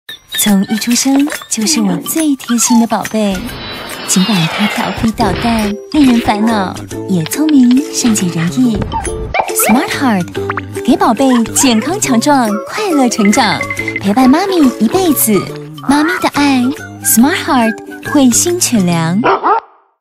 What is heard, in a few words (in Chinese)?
犬糧CF音檔; 慧心SmartHeart犬糧CF